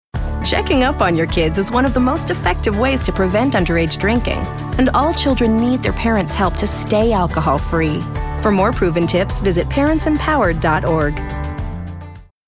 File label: Conversational